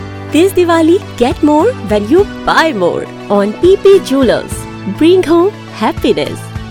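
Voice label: Jewellers Ad Hinglish; HINDI; Young Adult Female Middle Age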